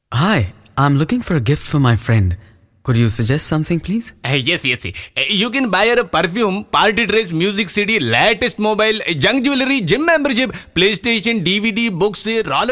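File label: English male voice-over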